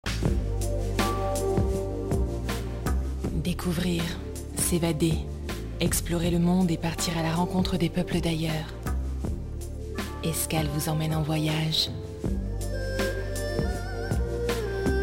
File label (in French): Spot TV, voix; Spot TV Escales